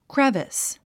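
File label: "crevice", English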